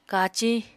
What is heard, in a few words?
"kaciñ", Apatani